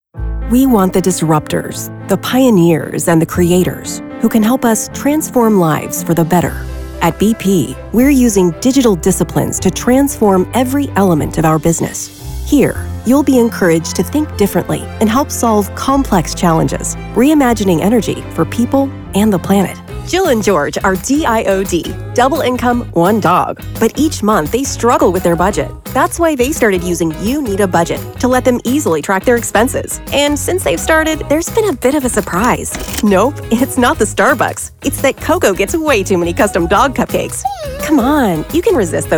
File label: Corporate narration